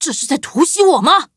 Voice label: touch, zh hant; cookie run

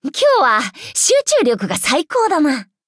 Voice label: level up, ja; Cookie Run

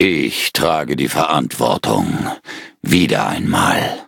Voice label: defeat, de; Cookie Run

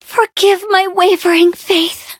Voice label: defeat, en; cookierunwiki

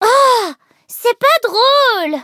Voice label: defeat, fr; Cookie Run

